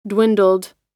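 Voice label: "dwindled", American English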